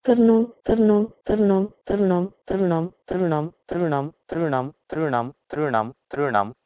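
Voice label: Proto-Indo-European trno to Sanskrit trNam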